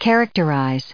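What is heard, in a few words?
"characterized", US English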